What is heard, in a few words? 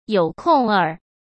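"有空儿", Mandarin Chinese; chinese-flashcards; hsk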